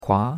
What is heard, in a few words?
"kua2", chinois; Cours de Chinois